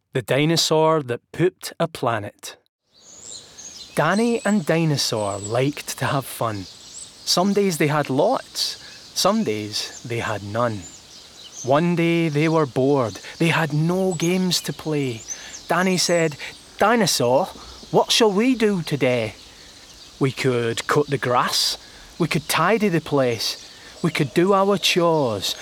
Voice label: Narrative